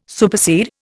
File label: "supersede", Indian